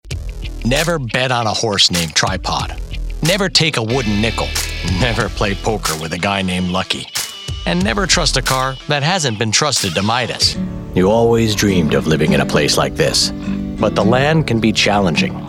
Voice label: Voice Actor; BigMouth Voices